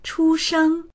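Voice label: "chu1sheng1", Mandarin Chinese; 出生; 生まれる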